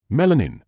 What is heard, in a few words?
"melanin", English